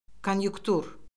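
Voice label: "konyunktur", Azerbaijani; Orfoqrafiya Lüğəti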